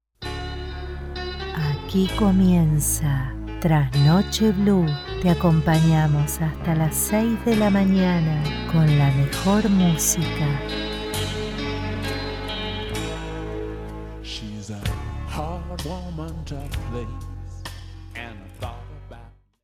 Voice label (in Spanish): melody retro con musica la voz en off en rioplatense